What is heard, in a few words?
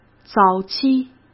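"早期", Mandarin Chinese; hsk; chinese-flashcards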